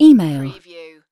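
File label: "email", British female; lynne publishing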